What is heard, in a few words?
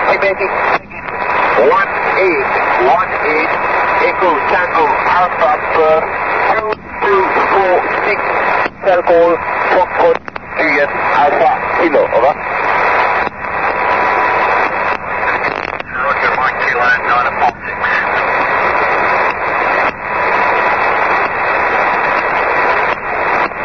cocos island aero mauritius aero usb; mau-41-mauritius aero